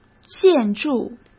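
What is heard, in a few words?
"建筑" Mandarin Chinese; hsk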